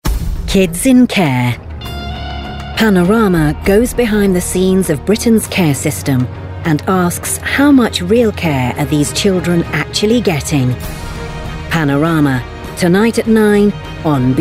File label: female English promo; panorama